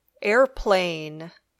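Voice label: "airplane", English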